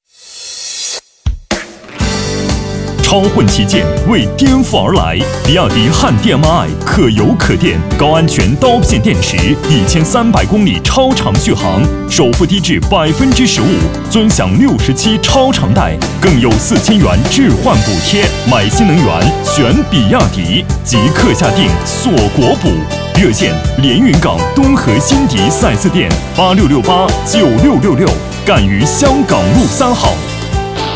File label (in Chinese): 男 广告; 比亚迪